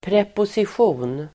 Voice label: "preposition", Swedish

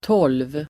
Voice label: "tolv", Swedish

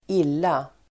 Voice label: "illa", Swedish

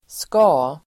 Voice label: "ska", Swedish

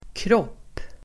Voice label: "kropp", Swedish

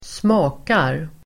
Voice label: "smakar", Swedish

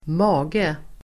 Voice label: "mage", Swedish

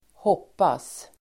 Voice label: "hoppas", Swedish

